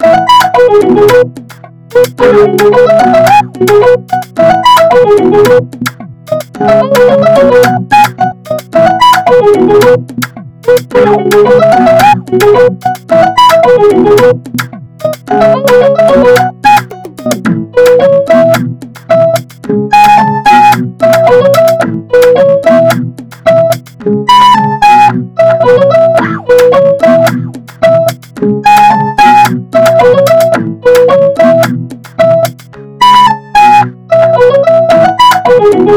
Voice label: vocode